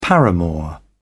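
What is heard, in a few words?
"paramour", British English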